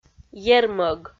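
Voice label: "ермӧг", Komi, female; audio dict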